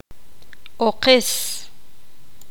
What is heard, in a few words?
poqomam; pie